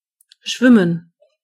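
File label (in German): "swim", German; gcompris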